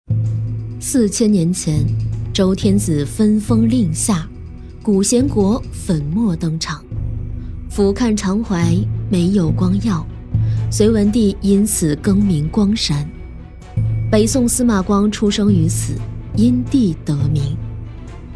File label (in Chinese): 女-纪录片-历史讲述; 大山-光山; 女B15-纪录片【大山-光山】-历史讲述